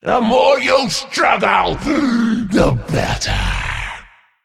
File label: Female Nerubian